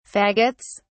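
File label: "faggots", British English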